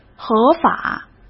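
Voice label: "合法", Mandarin Chinese; chinese-flashcards; hsk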